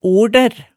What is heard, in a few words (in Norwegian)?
"oldær", Hemsedal